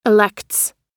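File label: "elects", American English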